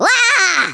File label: BUNGEE, Africaan; Worms Armageddon